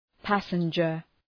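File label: "passenger", English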